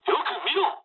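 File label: player friendly fire mood low, speech japanese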